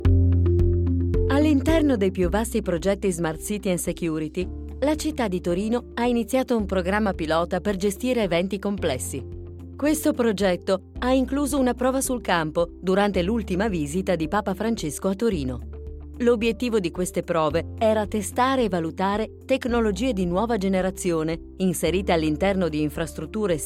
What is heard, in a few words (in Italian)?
documentari